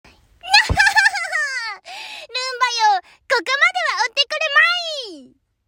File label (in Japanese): アフレコ